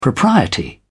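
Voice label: "propriety", British English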